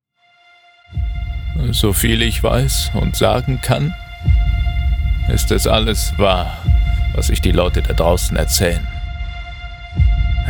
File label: horror trailer